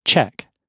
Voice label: "check", GB

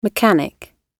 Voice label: "mechanic", GB

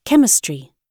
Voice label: "chemistry", GB

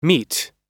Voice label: "meat", US

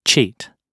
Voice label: "cheat", GB

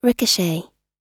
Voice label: "ricochet", US

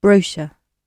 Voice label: "brochure", GB

